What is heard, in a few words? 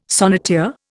"sonneteer", Indian